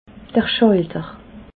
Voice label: "schalter", alsace

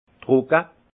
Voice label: "trocke", alsace; vin

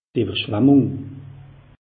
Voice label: "ewwerschwammung", alsace; meteo

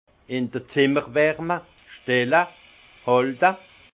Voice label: "zimmer warme", alsace; vin; chambrer

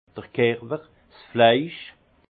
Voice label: "kerwer", alsace; vin; chair

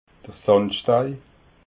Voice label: "sandstei", alsace

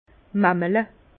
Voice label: "mamelle", Bas Rhin; vin

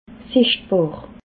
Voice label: "sichtbar", alsace

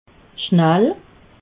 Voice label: "schnall", alsace

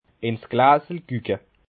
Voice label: "glasel", Bas Rhin

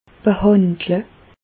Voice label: "behandle", Bas Rhin; medecine; traiter

